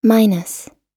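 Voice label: "minus", American English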